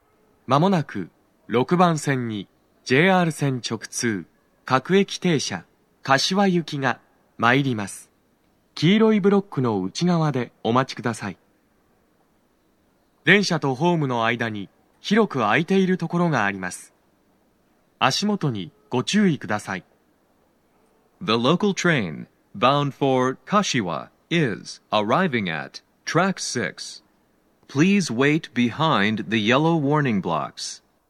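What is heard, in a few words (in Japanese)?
6番線 接近放送 男声, 大手町駅; 放送は「各駅停車 柏行」です。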